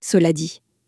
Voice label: "cela dit", French